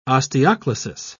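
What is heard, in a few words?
"osteoclasis", British English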